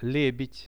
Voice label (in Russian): "lebed", Russian; лебедь; birds; RUSSIAN VISUAL DICTIONARY